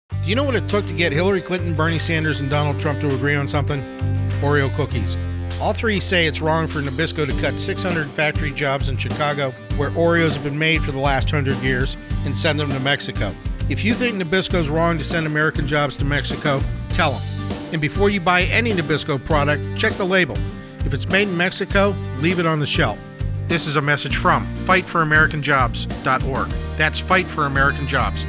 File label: Boycott Commercial; ENG